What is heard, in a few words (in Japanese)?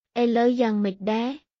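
クメール語（カンボジア語）の日常会話基本フレーズ; クメール語は、カンボジア王国の公用語で、独特のやわらかい響きが特徴です。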